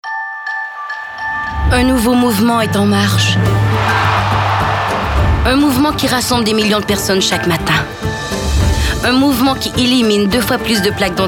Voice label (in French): demo de voix